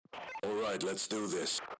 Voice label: roundstart, radio

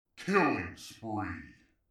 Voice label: killing spree, announcer; instagib; blocks and bullets

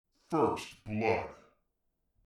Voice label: "first blood", announcer; instagib; blocks and bullets

